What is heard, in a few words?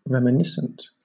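"reminiscent", British English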